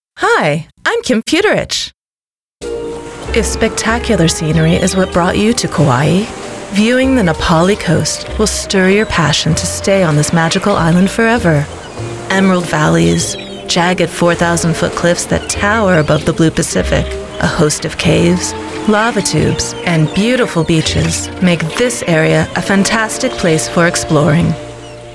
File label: NARRATION VO